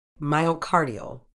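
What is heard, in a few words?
"myocardial", British English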